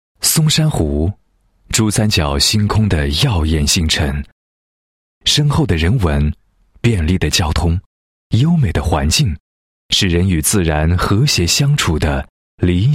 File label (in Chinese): 男 房地产广告 招商片; 松山湖